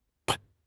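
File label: "ban1", Mandarin Chinese; HSK3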